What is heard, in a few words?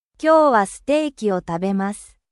japan